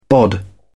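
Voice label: "bod", British English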